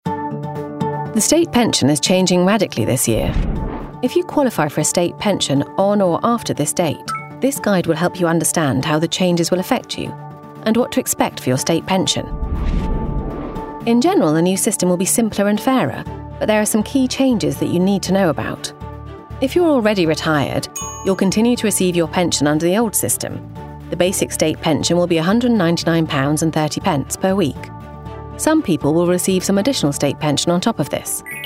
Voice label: Corporate Explainer